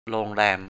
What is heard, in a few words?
"ro:ŋræ:m" Thai, 'hotel'; โรงแรม